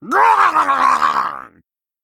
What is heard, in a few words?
Male Murloc Play